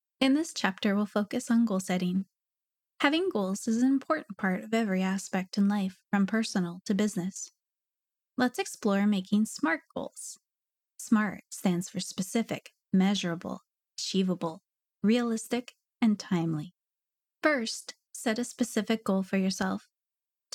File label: elearning